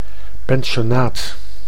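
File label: "pensionnaat", Dutch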